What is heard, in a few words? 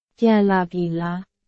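Burmese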